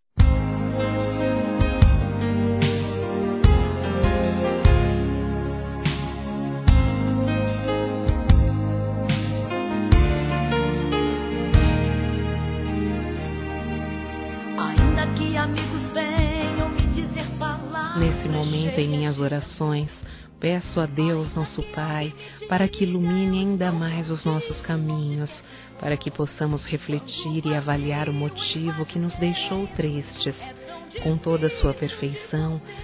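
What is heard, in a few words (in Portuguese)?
Irmão em Cristo, feminino; Telemensagens; Desculpas; Telemensagens 2017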